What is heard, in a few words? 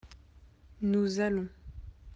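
"aller", French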